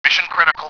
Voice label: "critical", Spraak